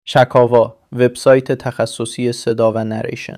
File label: tablighati, male